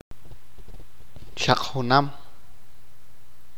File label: "even", achi